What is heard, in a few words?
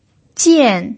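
"jian" fourth tone, pinyin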